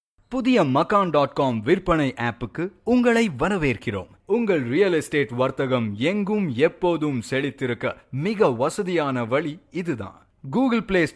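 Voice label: Tamil, M, Narration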